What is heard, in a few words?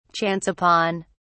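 "chance upon", British English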